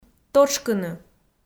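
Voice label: "тотшкыны", Komi, female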